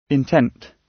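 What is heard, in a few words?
"intent", British; Crime and punishment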